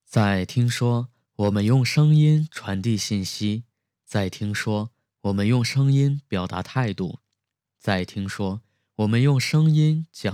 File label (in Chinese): 讲述类